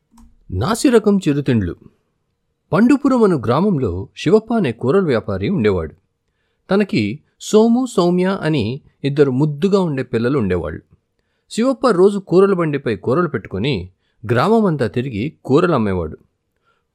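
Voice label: Youtube telugu, Kids stories or narration; junk food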